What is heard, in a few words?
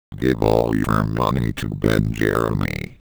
comm voice